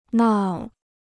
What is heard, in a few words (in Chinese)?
"闹", Chinese